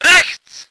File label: voice ger vehicle